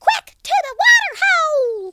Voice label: "runaway", Cowgirl; speech